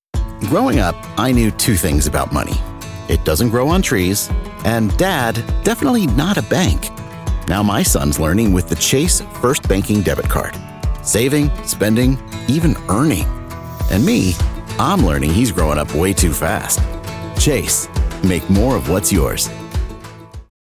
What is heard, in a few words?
Commercials, Promos, Narration, eLearning; voice actor